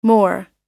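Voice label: "more", American English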